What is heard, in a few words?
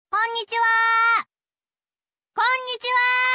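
"Konnichiwa", Japanese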